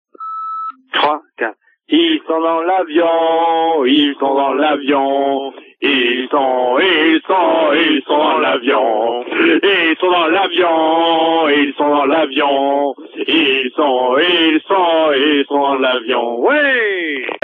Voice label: Coupe du Monde repondeur

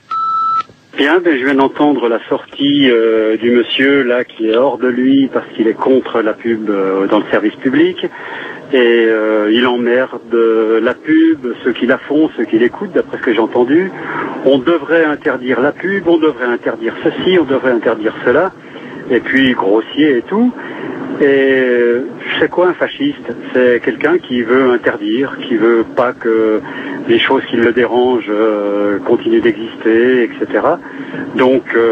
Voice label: Pub répondeur; France Inter

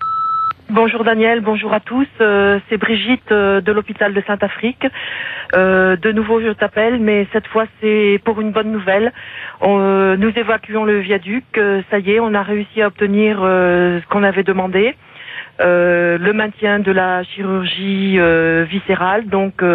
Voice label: répondeur; Fermeture hopital

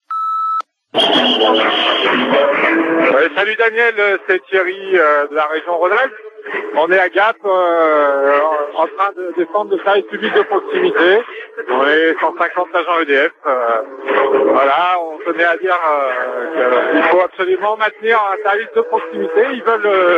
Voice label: EDF repondeur

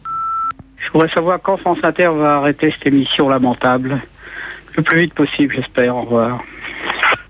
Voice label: repondeur; Anti La-bas

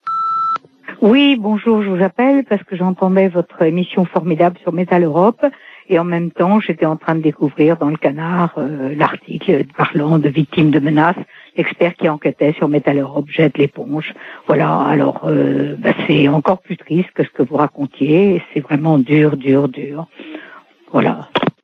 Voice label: répondeur; Metaleurop

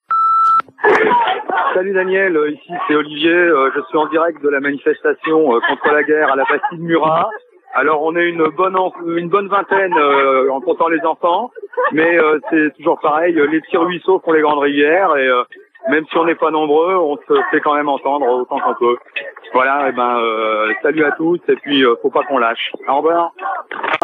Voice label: Manif Stop War repondeur

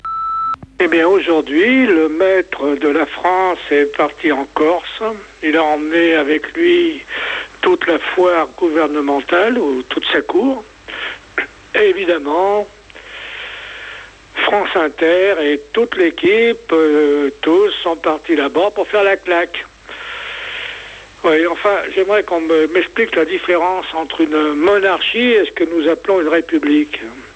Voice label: repondeur; Nicolas Sarkozy et France Inter en Corse

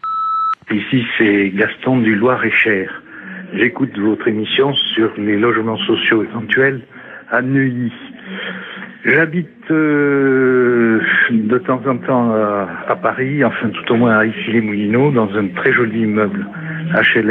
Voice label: repondeur; Logements sociaux

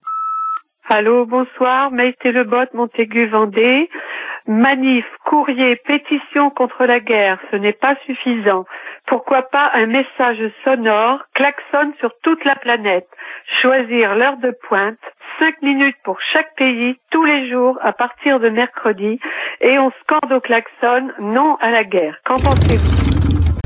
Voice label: Irak repondeur

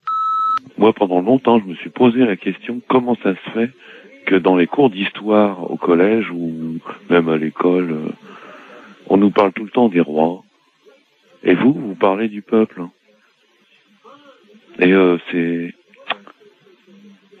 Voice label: Stalingrad repondeur

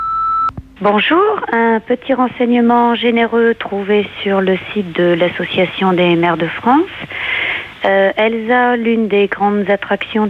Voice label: repondeur; Les futurs drones de la police

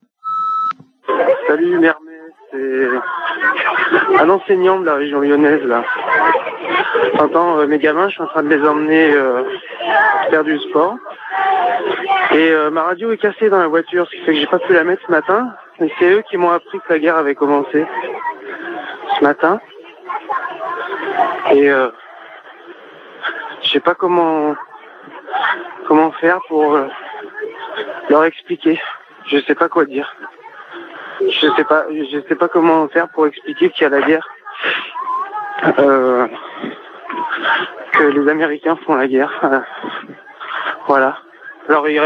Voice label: Irak repondeur

